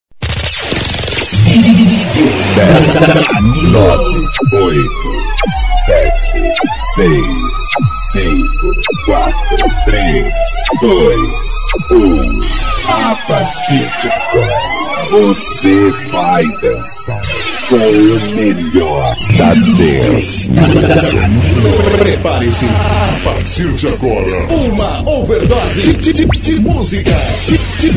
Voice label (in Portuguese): Contagem Regressiva Portugues, Vinhetas